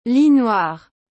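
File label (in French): "lis noir", French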